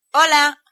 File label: hello, galician